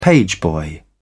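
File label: "pageboy", British English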